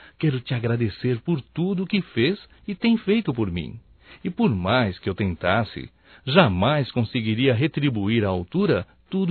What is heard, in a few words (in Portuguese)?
Aniversário Irmã Masculino; Aniversarios; Telemensagens 2017